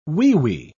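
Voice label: "weewee", British English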